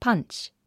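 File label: "punch", English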